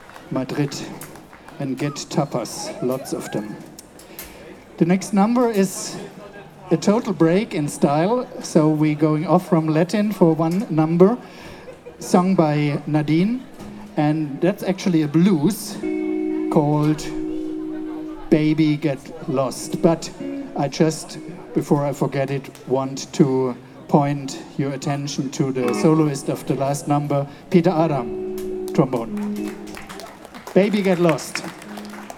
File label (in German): Ansage